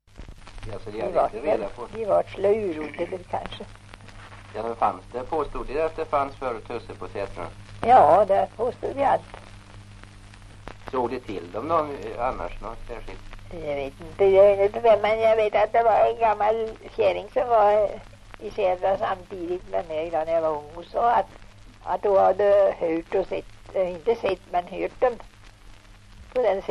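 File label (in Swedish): Järnskog Värmland, dialekter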